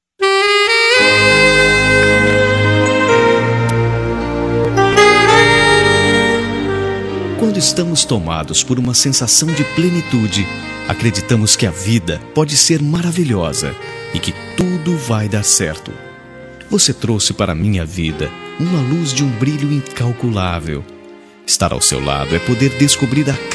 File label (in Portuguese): EU TE AMO MASC; Telemensagens 2017; Amor